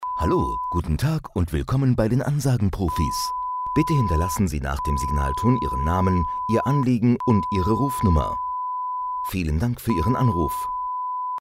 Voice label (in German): Sprecher piep Ansage